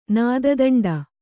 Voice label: "ನಾದದಂಡ", Kannada